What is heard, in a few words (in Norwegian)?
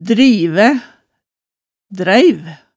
"drivæ, dreiv", hemsedalsmaalet